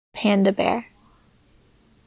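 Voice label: "panda bear", English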